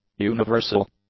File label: "universal", English; adjectives